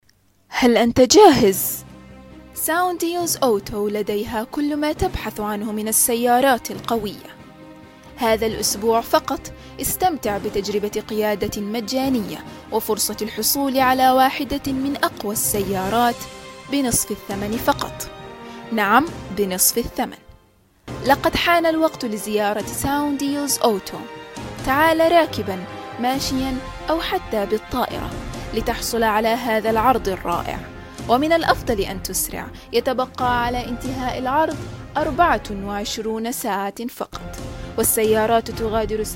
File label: Arabic IVR On Hold Voicemail Greetings